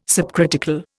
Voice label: "subcritical", Indian

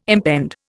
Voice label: "impend", Indian

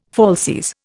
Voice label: "falsies", Indian

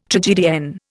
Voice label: "tragedienne", Indian

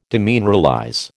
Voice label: "demineralize", American

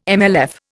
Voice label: "MLF", Indian

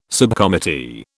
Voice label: "subcommittee", British

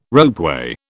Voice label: "ropeway", British

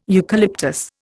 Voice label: "eucalyptus", Indian

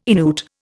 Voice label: "Innuit", Indian

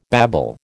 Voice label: "babble", American

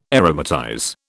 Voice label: "aromatize", British

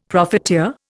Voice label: "profiteer", Indian; Dictionary

